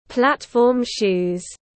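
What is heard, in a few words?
"Platform-shoes", English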